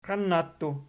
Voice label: "qiannattu", Archi